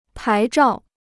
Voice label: "牌照", Chinese

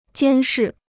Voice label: "监视", Chinese

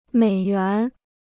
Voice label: "美元", Chinese

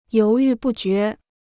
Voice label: "犹豫不决", Chinese

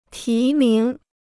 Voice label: "提名", Chinese

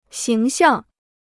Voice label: "形象", Chinese

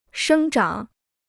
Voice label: "生长", Chinese

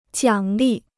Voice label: "奖励", Chinese; 奖励 (jiǎng lì); to reward; reward (as encouragement)

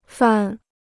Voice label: "犯", Chinese

